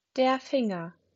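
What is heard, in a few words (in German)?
"Finger", German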